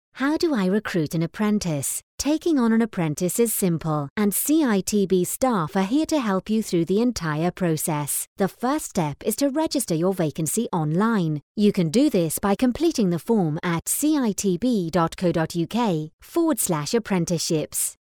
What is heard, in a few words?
neutral corporate